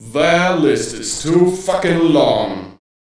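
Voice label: vocal male, Dance Vocals, spoken words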